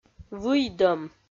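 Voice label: "выйдӧм", Komi, female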